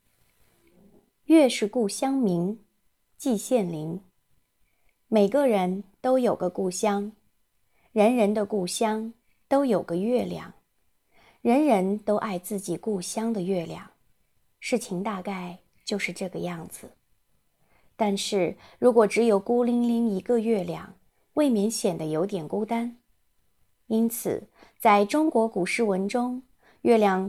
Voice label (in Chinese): 短文朗诵